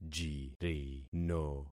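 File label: "jirino", concatenated syllables; Affixing experiment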